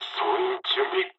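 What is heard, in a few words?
near waypoint mood med, speech japanese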